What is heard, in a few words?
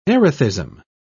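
"erethism", British English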